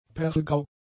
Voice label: "perlgrau", German; adjectives